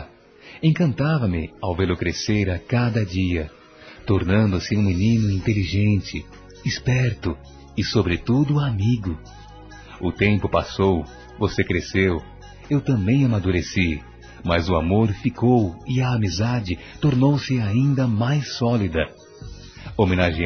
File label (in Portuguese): HOMENAGEM AO SOBRINHO M, Telemensagens; Homenagens; masc